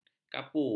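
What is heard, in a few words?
"kapu", Malay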